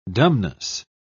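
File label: "dumbness", British English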